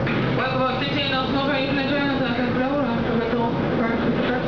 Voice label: announce